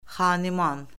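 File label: "xaniman", Azerbaijani; Orfoqrafiya Lüğəti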